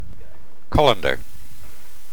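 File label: "colander", British English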